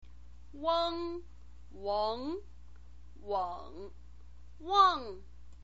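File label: "ueng", Mandarin Chinese pinyin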